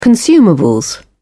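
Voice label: "consumables", British English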